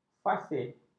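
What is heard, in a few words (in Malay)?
"fasih", Malay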